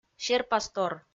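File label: "серпастор", Komi, female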